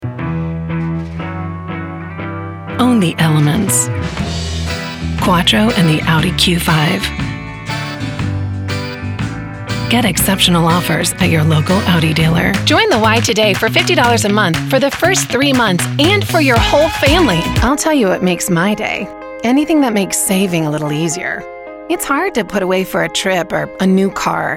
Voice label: Comm, commercial